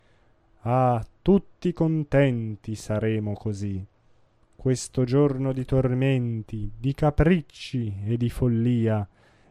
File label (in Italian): Tutti contenti espressivo; Le nozze di Figaro